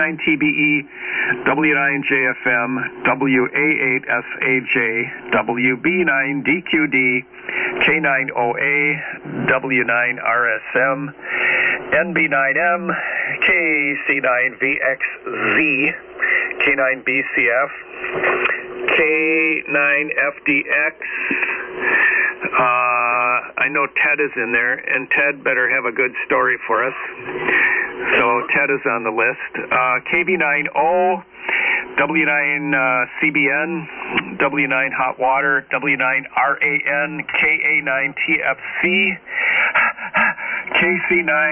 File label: SSB Net Check Ins